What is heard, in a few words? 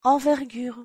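"envergure" French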